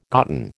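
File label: "gotten", American English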